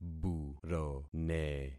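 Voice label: "burone", concatenated syllables; Affixing experiment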